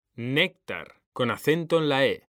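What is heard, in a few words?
"néctar", Spanish; mekanta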